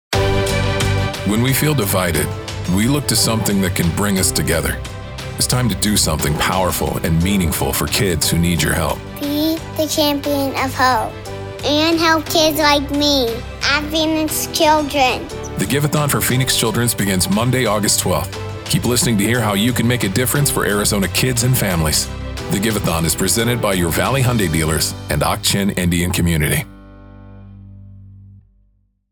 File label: Giveathon Promo; Washington, D.C. area